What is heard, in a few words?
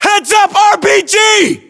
US threat rpg, battlechatter; english